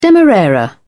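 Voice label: "demerara sugar", British English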